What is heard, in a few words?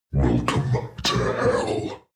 demon dark voice thick speak welcome to hell